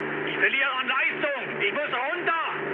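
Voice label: German damaged engine mood low, speech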